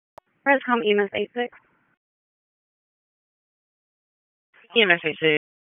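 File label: Wake County EMS Dispatch, p25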